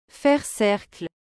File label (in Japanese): "faire cercle", フランス語